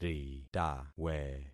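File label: "ritawe", concatenated syllables; Affixing experiment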